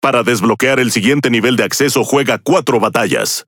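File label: Spanish Speech; Access Level Unlock; World Of Warships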